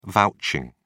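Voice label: "vouching", British English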